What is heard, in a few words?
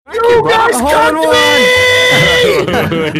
rage; moo golf it